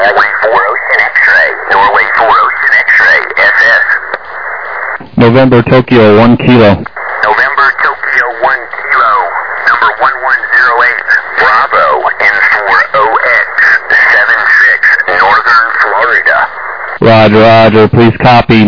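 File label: upper sideband 14MHz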